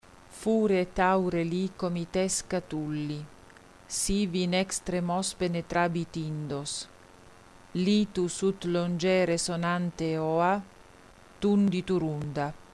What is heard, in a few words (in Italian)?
saffica, lettura metrica